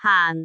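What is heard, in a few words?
"haan4", Cantonese; googleA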